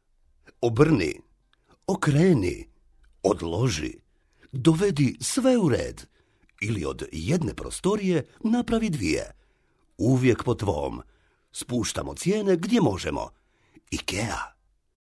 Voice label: male croatian voice over talent narrative